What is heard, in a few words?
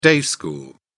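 "day school", English, British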